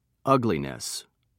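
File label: "ugliness", British English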